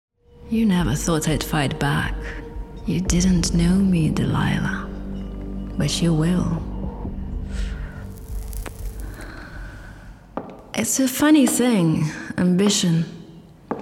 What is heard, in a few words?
female ukrainian multiple accents video gaming compilation; devine voices